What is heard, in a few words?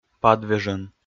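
"падвежӧн", Komi, male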